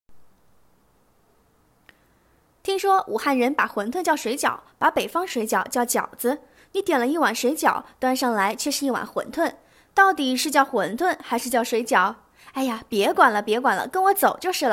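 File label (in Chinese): 水饺, 女 青年 普通话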